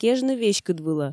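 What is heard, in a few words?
"кежны веськыдвылӧ", Komi, female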